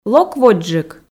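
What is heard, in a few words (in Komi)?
лок водзджык, Komi, female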